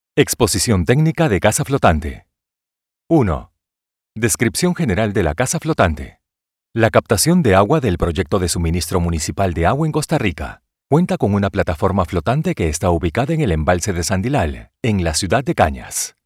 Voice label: 西班牙语

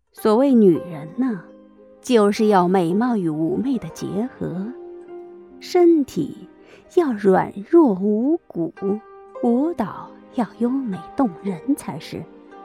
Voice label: 娇媚

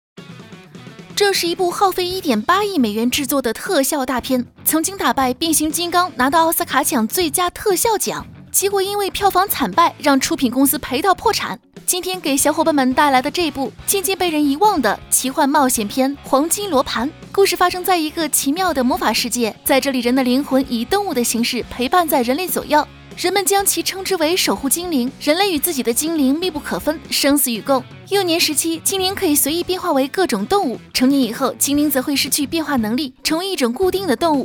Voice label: 影视视频解说

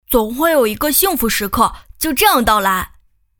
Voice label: 正太

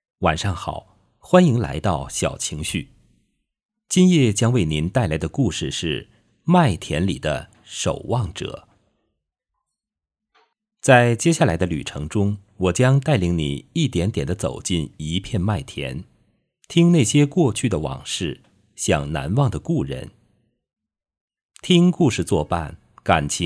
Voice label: 电台男播

